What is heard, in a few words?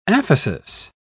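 "aphesis", British English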